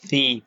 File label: short voyels arabic alphabet